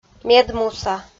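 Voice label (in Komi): "медмуса", Komi-Zyrian, female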